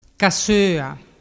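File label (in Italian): "cassoa", dialect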